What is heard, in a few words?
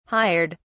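"hired", British English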